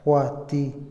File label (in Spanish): asesinar, Otomí, hombre; INALI